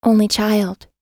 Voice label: "only child" US

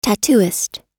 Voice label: "tattooist", us

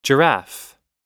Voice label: "giraffe", pronunciation

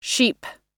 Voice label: "sheep", pronunciation

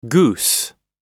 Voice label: "goose", pronunciation

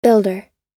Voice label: "builder", us

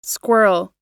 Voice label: "squirrel", pronunciation

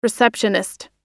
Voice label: "receptionist", us

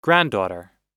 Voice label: "granddaughter", us

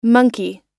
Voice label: "monkey", pronunciation

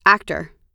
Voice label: "actor", us

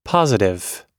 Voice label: "positive", pronunciation

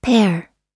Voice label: "pear" US

